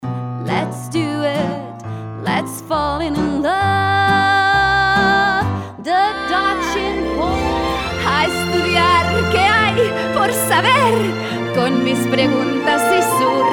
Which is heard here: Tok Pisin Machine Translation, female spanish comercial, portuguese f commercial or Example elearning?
female spanish comercial